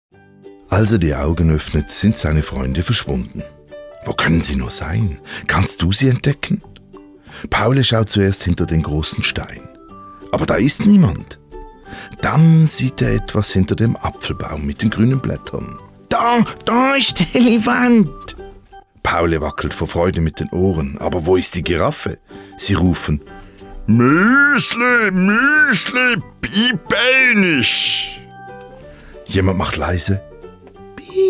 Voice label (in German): Sprecher Kindergeschichte